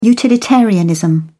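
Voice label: "utilitarianism", British English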